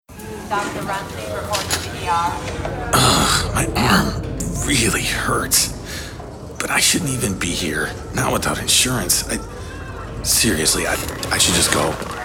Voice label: Radio; MNsure; EmergencyRoom